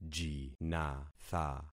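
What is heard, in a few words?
"jinatha", concatenated syllables; Affixing experiment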